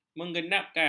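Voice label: "menggenapkan", Malay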